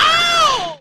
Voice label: ow male

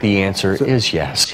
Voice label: answer is yes announcer

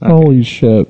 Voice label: oh shit, Voice expletives

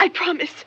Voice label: "i promise", female; Voice

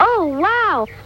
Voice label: oh wow, kid; ooh ahh

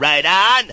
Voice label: right on male; Voice

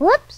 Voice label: "whoops", girl; Voice; expletives

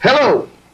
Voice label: "hello", voice; introductions

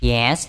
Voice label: "yes", male; Voice

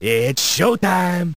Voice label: it's showtime male; Voice; introductions

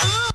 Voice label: "ooh" female

